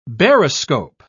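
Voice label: "baroscope", British English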